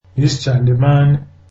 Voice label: zulu German